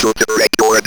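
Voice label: ROBVOX, robot voice; E-Lab; X Static Goldmine 1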